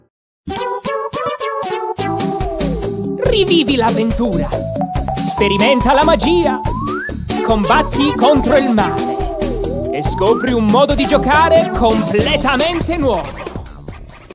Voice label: male English Italian video game commercial

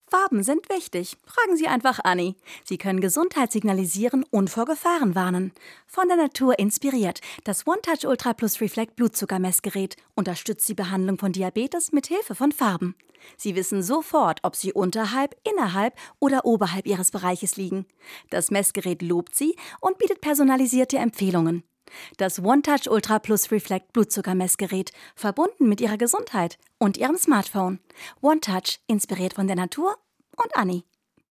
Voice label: female german commercial; onetouch reflectr

